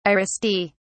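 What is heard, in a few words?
"aristae", British English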